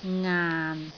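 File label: "ngaan6", Cantonese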